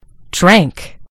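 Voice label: "drank", British English; gcompris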